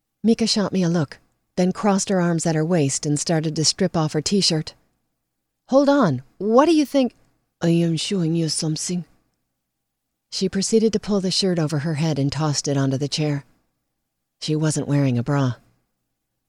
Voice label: Book, female voice